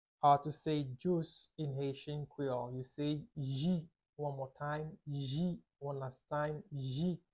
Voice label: How to say Juice in Haitian Creole Ji pronunciation by a native Haitian tutor